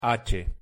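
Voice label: pronunciation "h", Spanish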